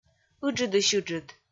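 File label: "ыджыдысь-ыджыд", Komi, female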